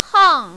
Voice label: "hang4", mandarin, female

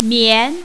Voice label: "mian2", mandarin, female; 棉

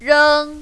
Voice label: "reng1", mandarin, female

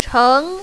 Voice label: "cheng2", mandarin, female

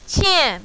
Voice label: "qian4", mandarin, female; 欠

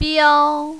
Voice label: "biao1", mandarin, female

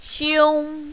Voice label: "xiong1", mandarin, female

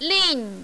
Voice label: "lin4", mandarin, female

